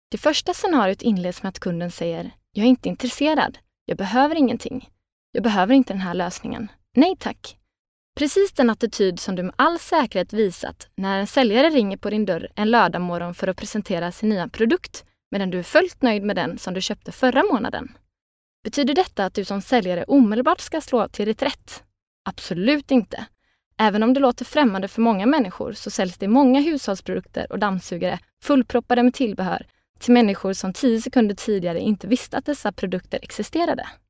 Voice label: Sprecherin Schwedisch; Nachvertonung Film

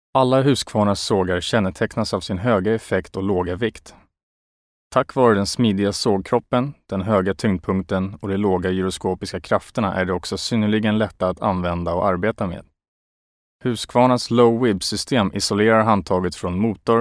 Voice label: Nachvertonung Film Sprecher Schwedisch

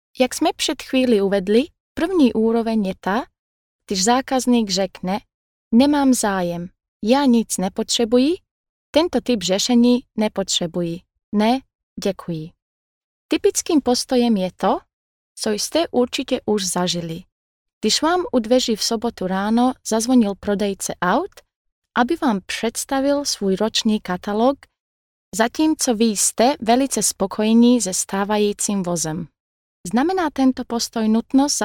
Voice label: Sprecherin Tschechisch; Nachvertonung Film

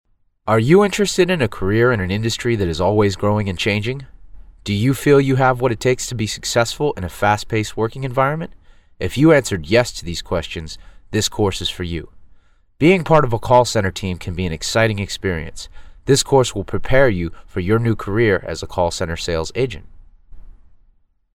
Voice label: Nachvertonung Film Sprecher Englisch US

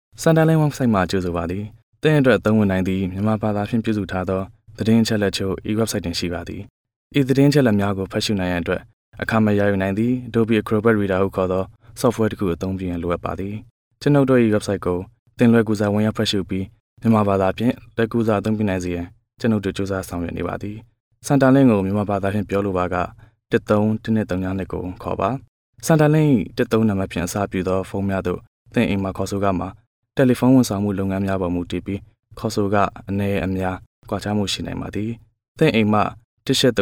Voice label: Nachvertonung Film Sprecher Birmanisch

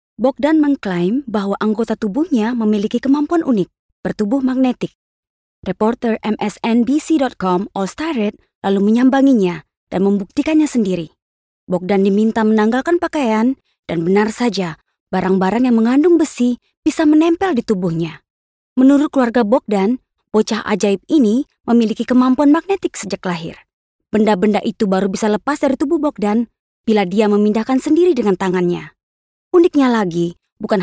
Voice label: Nachvertonung Film Sprecherin Indonesisch

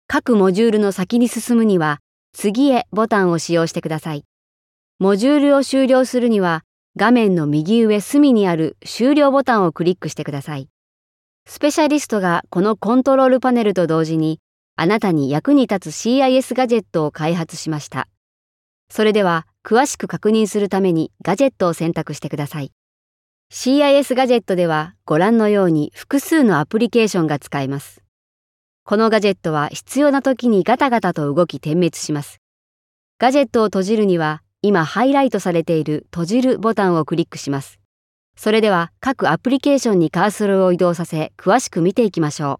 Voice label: Nachvertonung Film Sprecherin Japanisch

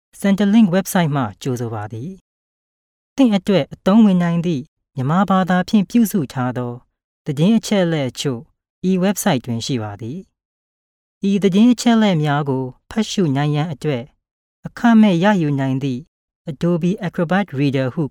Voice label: Nachvertonung Film Sprecherin Birmanisch

